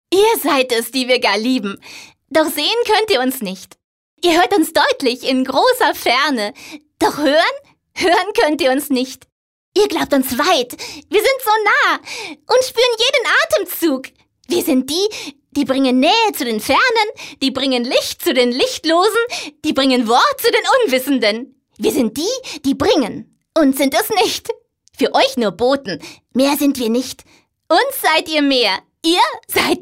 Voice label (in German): froehlich light, frauen; sprecher